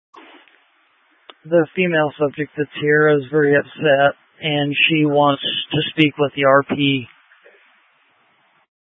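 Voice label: Fire Dispatch, Scanner audio recording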